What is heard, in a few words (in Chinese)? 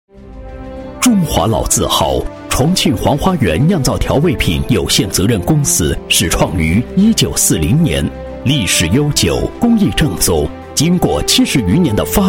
男 专题 食品企业 沉稳厚重, 国语男声配音